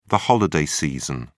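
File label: "holiday season", British English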